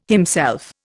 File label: "himself", Indian